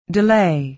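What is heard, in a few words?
"delay", American English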